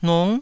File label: "nung9", Taiwanese